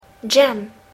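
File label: "jam", English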